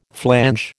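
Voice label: "flange", American English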